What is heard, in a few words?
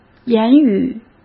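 "言语", Mandarin Chinese; chinese-flashcards; hsk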